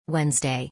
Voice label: "Wednesday" in english